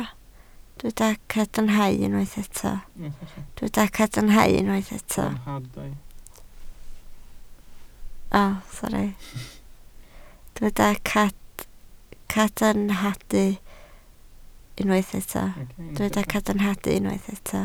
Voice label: "cadarnhadau", Welsh